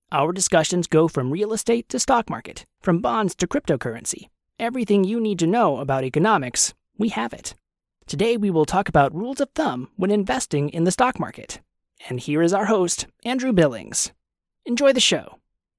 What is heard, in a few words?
Podcast